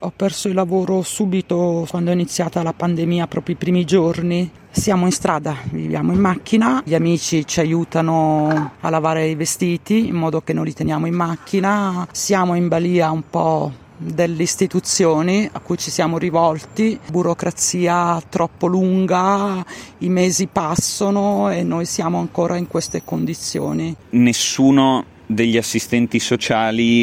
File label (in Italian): voci signori che vivono in auto